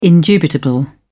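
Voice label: "indubitable", British English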